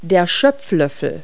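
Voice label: "ladle", English word; Multi-Lingual Talking Picture Dictionary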